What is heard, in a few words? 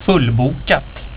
"fullbokat", swedish